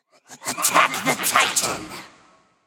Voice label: vox attack m; smite; bakasura